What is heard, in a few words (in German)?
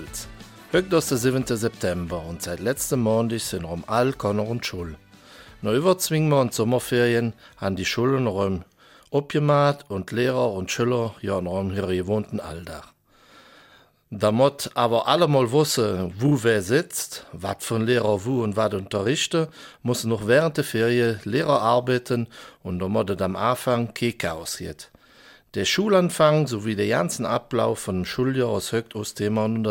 Eifeler Mundart; Das neue Schuljahr hat begonnen